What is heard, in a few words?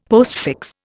"postfix", Indian; Dictionary